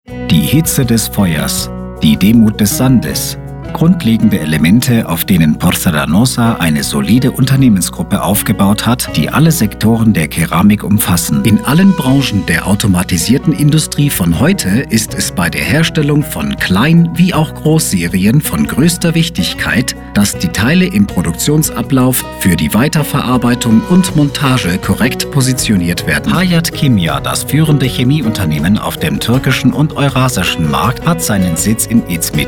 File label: Documentary